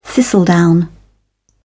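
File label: "thistledown", British English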